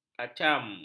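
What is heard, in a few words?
"kacam", Malay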